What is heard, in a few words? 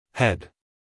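"head", American English, male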